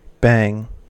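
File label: "bang", American English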